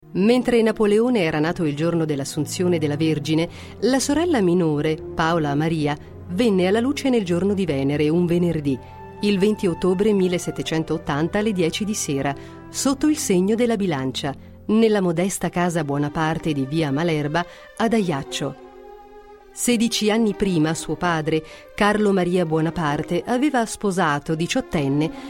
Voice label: italian f narration; voice demos